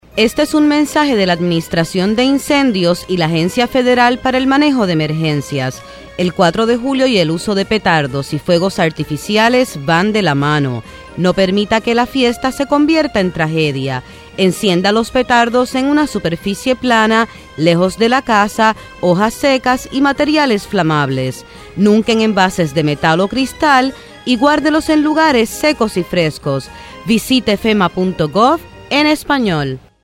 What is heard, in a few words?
PSA ESP Fireworks